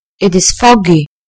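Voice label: "foggy", British English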